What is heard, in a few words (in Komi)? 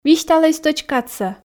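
"висьталӧй стӧч кадсӧ", Komi, female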